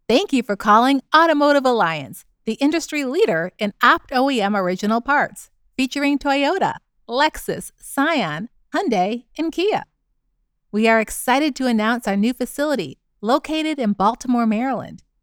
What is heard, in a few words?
Phone Energetic Professional Warm